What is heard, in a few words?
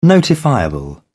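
"notifiable", British English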